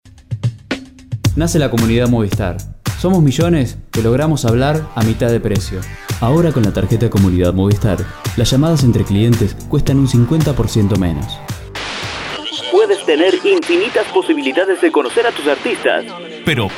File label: publi, locutor